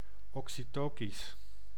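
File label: "oxytocisch", Dutch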